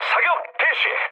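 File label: Korean attack position mood med, speech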